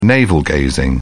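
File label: "navel-gazing", British English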